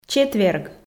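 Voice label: "четверг" Komi, female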